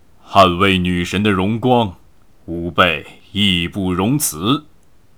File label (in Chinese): 胜利, BattleCry; ycrpg; 大胡子